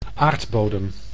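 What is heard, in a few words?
"aardbodem", Dutch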